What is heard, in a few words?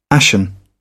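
"ashen", English, British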